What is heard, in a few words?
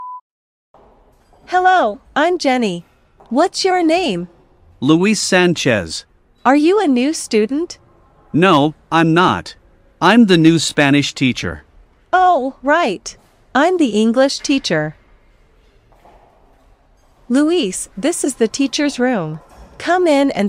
A1 Dialogue Introductions teachers